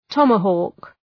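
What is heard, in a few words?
"tomahawk", English; {‘tɒmə,hɔ:k}; (Ουσιαστικό)● πέλεκυς ερυθρόδερμου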